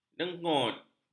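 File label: "dengut", Malay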